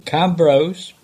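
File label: "Cambrose", English; place names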